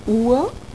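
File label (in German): "uhr", German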